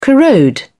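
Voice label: "corrode", British English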